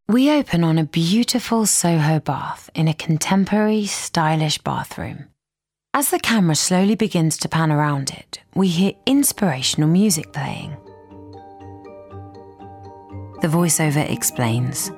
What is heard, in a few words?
Narrative; Bathstore; Vocal Point